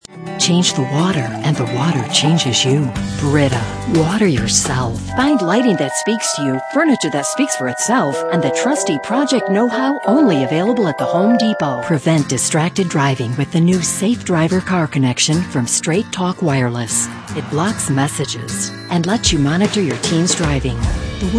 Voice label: natural character, female

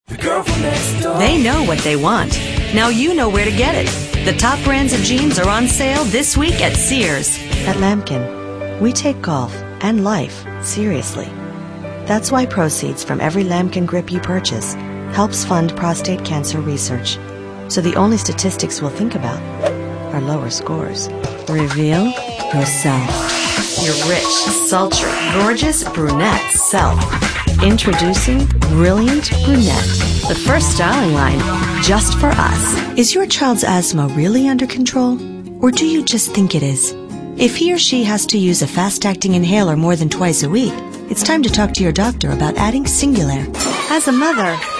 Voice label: female late20s late30s clear

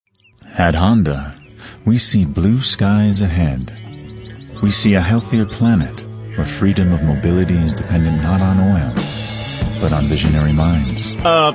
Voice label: male, sophisticated

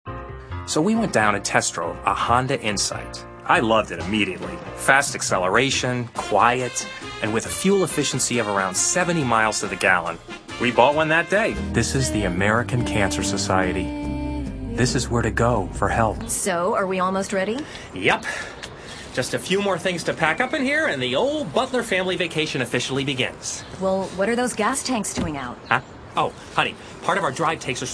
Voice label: male, natural character

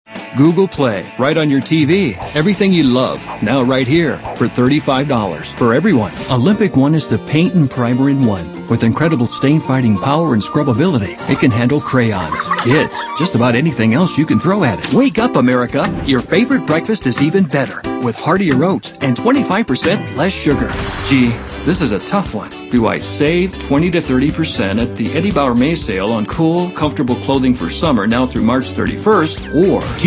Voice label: male, mid30s mid40s, warm

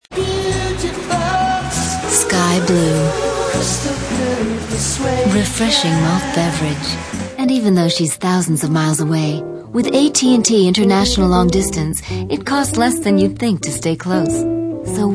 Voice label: female, late20s late30s clear

